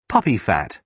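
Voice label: "puppy fat", British English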